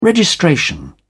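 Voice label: "registration", British English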